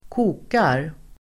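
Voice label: "kokar", Swedish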